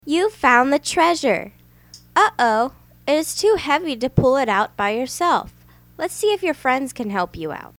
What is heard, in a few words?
uh oh